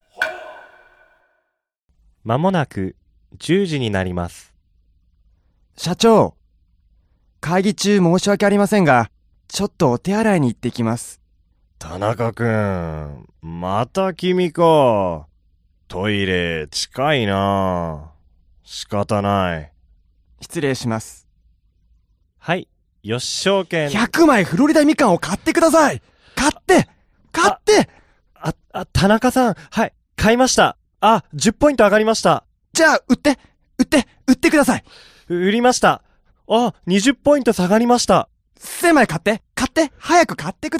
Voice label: dialog; JapanesePod101; Beginner Season 1